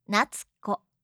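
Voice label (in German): "natsuko", japanische Mädchennamen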